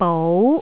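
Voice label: "bou2", Jyutping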